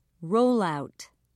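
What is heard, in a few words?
"rollout", English, British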